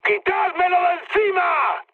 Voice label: aircraft damaged, mood high, speech; War Thunder